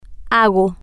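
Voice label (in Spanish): "hago", Spanish, female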